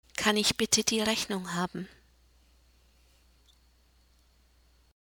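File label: "kann ich bitte die Rechnung haben", German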